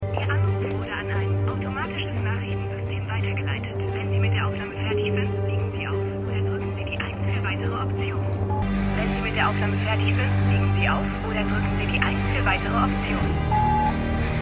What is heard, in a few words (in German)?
Ansage